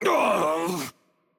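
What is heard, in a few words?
Hurt Heavy, Brazilian Portuguese; VO; bloom minigame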